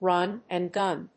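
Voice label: "run-and-gun", English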